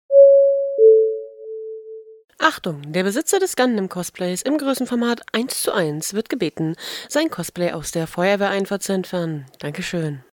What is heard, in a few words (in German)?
durchsage